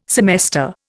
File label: "semester", Indian